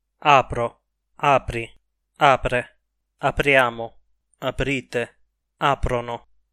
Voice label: aprire coniugazione, Italian

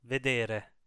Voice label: "vedere", Italian

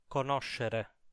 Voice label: "conoscere", Italian

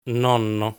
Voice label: "Nonno", Italian

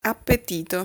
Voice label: "Appetito", Italian

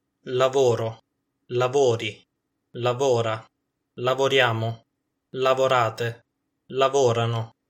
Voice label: lavorare coniugazione, Italian

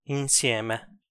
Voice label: "insieme", Italian

